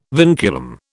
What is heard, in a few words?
"vinculum", British